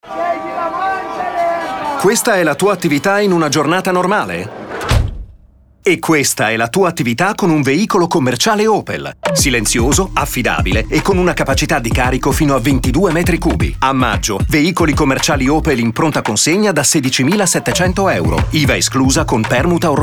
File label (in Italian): radio; opel